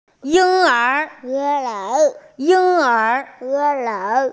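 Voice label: "ying1er2" Mandarin Chinese, female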